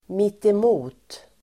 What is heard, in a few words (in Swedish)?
"mittemot", Swedish